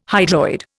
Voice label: "hydroid", Indian